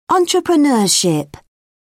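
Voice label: "entrepreneurship", English, British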